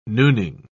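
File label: "nooning", British English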